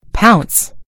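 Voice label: "pounce", British English; gcompris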